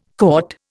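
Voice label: "cotta", Indian English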